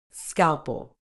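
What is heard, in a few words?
"scalpel", British English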